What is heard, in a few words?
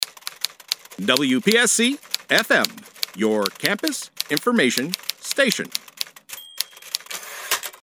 Sweeper; APRadio; Campus Information Station